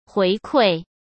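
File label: "回馈", Mandarin Chinese; chinese-flashcards; hsk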